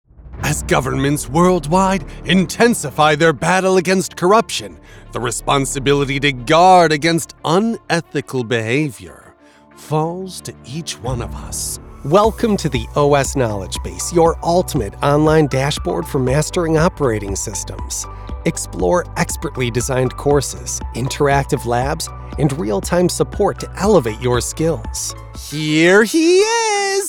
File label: Elearning